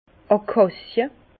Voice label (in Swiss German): "akacie", Bas Rhin; vin; acacia